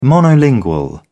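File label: "monolingual", British English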